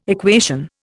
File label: "equation", Indian